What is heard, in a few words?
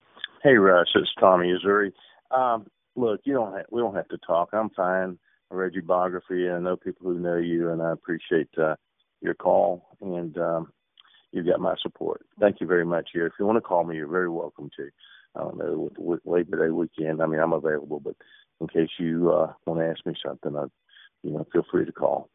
voicemail